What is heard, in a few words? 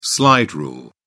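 "slide rule", British English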